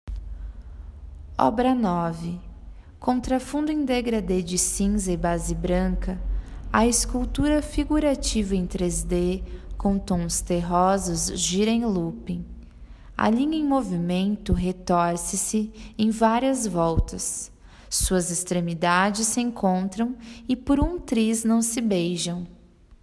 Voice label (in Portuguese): Audiodescricao; Exposição; Galeria Virtual